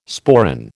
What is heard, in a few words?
"sporran", American